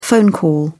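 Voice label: "phone call", British English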